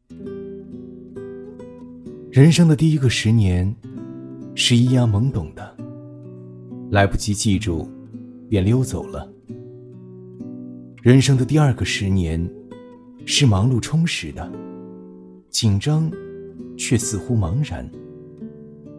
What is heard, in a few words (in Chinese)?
男 广告 求婚